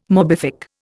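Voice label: "morbific", Indian English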